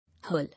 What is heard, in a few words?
"Hurl", English